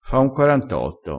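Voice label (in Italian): "fa un quarantotto", vocabolario dialettale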